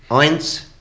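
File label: "oins", Bavarian, male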